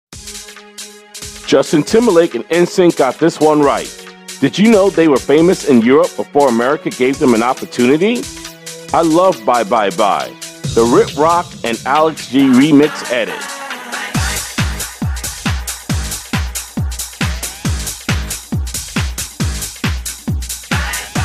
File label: voiceover music